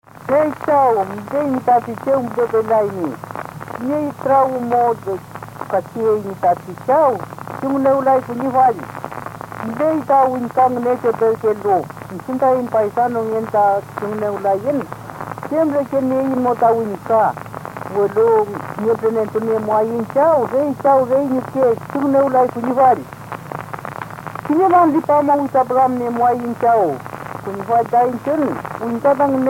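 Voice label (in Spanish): Conjuro del vino, Mapuche; Música Mapuche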